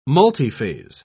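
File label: "multiphase", English, British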